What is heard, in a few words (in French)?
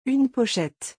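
"une pochette", French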